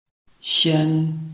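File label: "xian1", Mandarin Chinese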